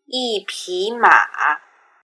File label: "yipima", Chinese; measure-animals